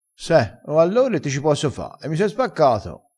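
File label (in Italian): "spaccato", vocabolario dialettale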